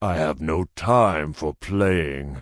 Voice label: Tauren Male Elder Pissed; NPC